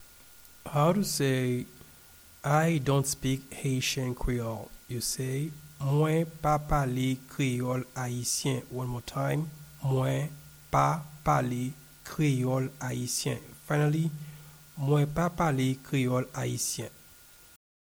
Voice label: I dont speak Haitian Creole Mwen pa pale kreyol ayisyen pronunciation